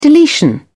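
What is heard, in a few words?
"deletion", British English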